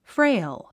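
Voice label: "frail", English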